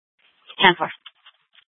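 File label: Police Department North Dispatch, scanner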